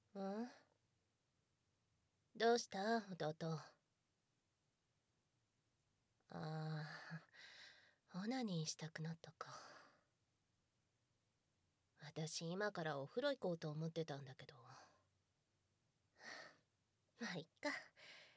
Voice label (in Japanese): 優しい姉ちゃん